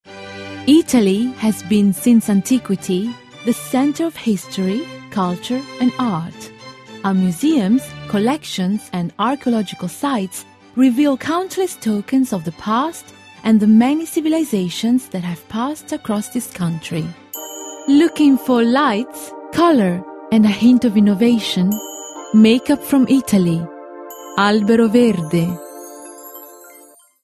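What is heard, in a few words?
Italian English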